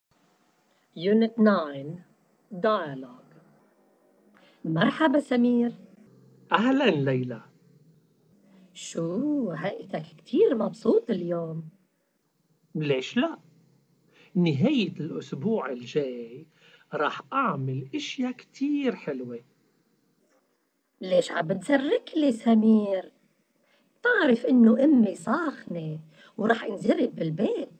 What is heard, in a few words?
dialogue, Arabic MSA; a complete course in spoken written arabic msa